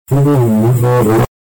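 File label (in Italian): "però", Italian; però mostrava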